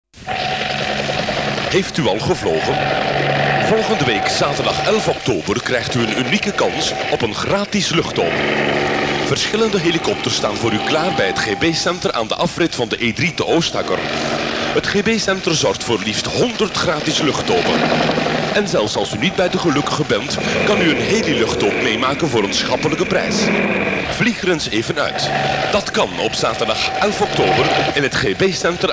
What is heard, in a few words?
commercial